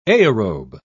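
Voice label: "aerobe", British English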